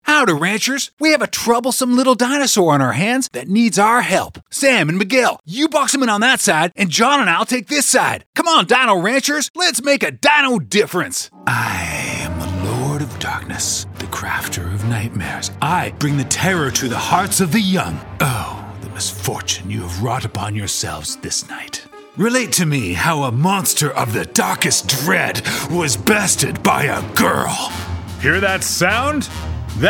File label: character; TaDa